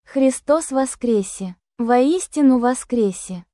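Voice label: Truly He Is Risen Slavonic; Christos Voskrese! Voistinu Voskrese!; THE PASCHAL TROPARION; Great and Holy Pascha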